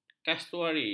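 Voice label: "kasuari", Malay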